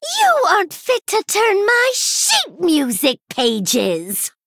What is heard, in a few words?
Female Gnome Idle; Rin; Mission8